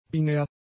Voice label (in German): "binär", German; adjectives